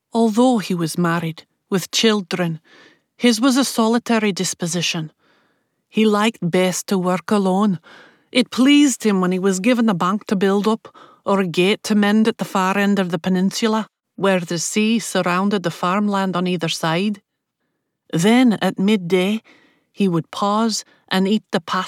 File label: Accent Demo Scottish